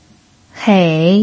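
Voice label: "hei", third tone, Mandarin Chinese pinyin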